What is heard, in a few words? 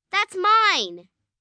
"Thats Mine", 1 girl, 9 yrs; Talking; VoiceBox